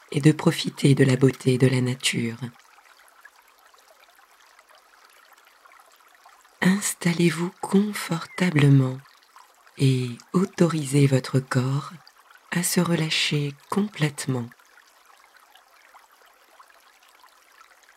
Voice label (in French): Meditation guidee Balade au bord de l eau; balade meditative